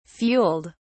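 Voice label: "fueled", British English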